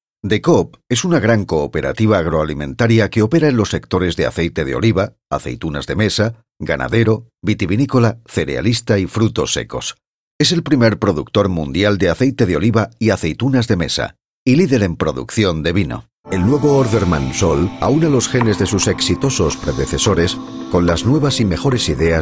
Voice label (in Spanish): voz masculina grave